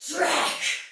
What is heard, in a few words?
Attack Normal Soldier Female, Battle Barks; Sinecure